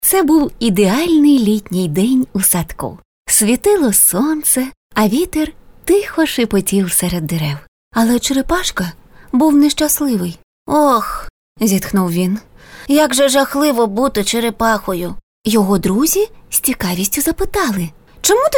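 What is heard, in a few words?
fairy tale Ukrainian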